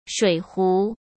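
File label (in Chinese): "水壶", Mandarin Chinese; hsk; chinese-flashcards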